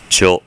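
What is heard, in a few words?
"tyo", Japanese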